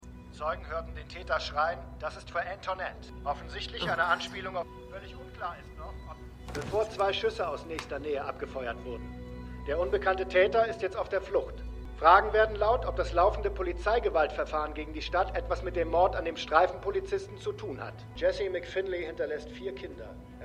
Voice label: Nachrichtensprecher; The Good Fight

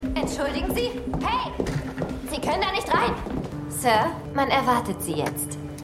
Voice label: Assistentin; Minyards Assistentin; MEMORIAL HOSPITAL - DIE TAGE NACH HURRIKAN KATRINA (DF 2022); Five Days at Memorial

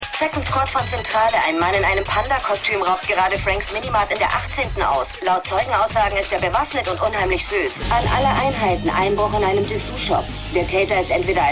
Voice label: Disponentin; The Unusuals

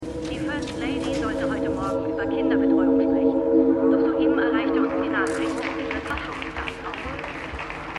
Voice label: Nachrichtensprecherin; AmericanCrimeStory

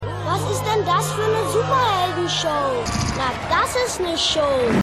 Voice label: Junge, Stimmproben

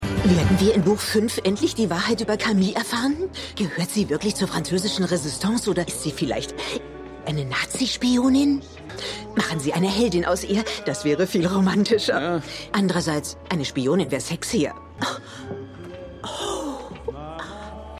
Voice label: Fan In Den Fuenfzigern; The Noel Diary

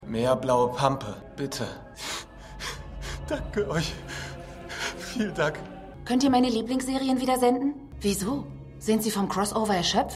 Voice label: Bittsteller Bittstellerin, Stimmproben

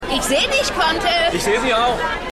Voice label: Schueler; The Wire

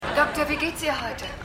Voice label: Frau, Stimmproben; Seinfeld

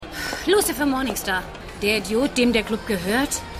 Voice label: Club Kellnerin; Lucifer

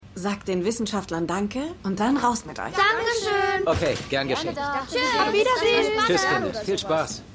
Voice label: Lehrerin; Shining Girls

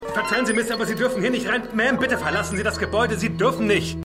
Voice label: Wachmann, Stimmproben

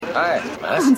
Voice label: Gutaussehender Mann; AusgerechnetAlaska

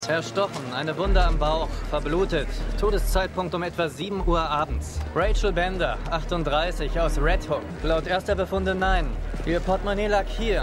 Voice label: Polizist, Stimmproben

